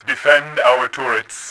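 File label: defend turrets, voice, Bot; Tribes2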